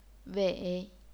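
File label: "wei", chinese pinyin, third tone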